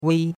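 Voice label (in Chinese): "wei1" Chinese; Cours de Chinois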